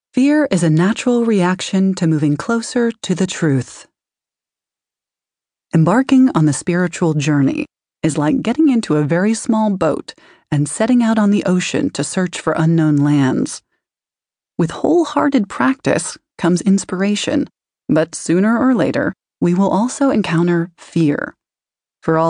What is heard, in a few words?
Non Fiction Audiobook; When Things Fall Apart